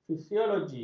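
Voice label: "fisiologi", Malay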